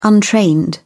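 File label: "untrained", British English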